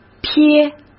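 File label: "撇", Mandarin Chinese; chinese-flashcards; hsk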